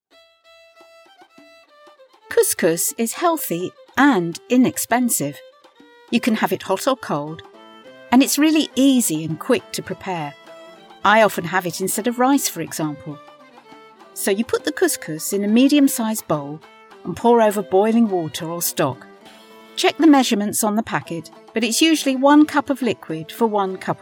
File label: elearning Music